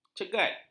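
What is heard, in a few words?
"cegat", Malay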